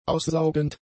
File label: "aussaugend", German; adjectives